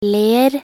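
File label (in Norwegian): "ler", Norwegian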